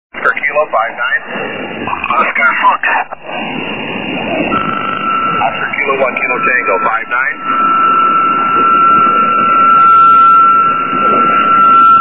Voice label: 40m ssb